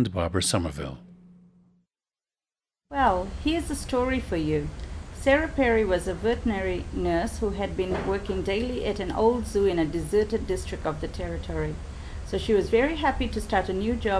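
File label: fiji, International Dialects of English Archive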